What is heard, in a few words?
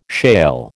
"shale", American